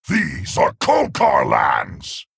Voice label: Male Centaur Play

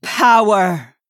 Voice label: Female Night Elf Attack

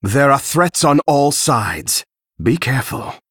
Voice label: Male Dragon

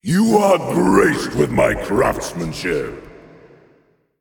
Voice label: Male Titan Trigger

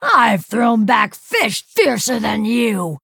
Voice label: Female Night Elf Threaten

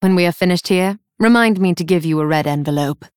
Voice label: Female Night Elf

